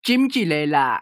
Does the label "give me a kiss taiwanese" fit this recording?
Yes